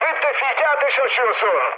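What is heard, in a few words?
Turkish player friendly fire, mood med, speech; War Thunder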